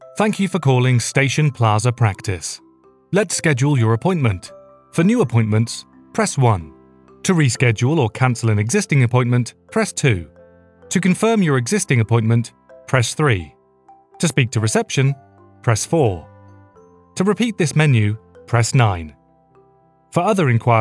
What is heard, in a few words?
English British IVR